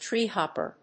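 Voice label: "Treehopper", English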